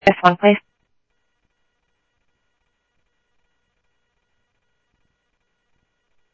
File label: Info Sheriff Common, scanner audio trunk